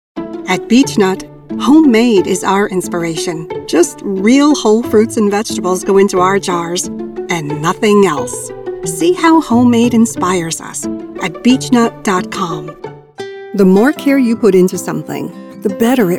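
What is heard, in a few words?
US English commercial, kvinne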